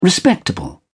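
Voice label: "respectable", British English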